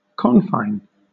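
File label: "confine", British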